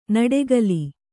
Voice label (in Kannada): "ನುಡಿಗಲ್", Kannada